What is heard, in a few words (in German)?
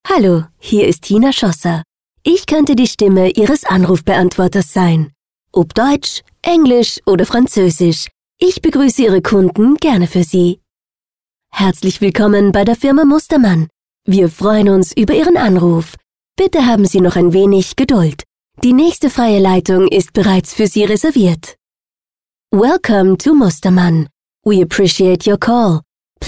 Telefonansagen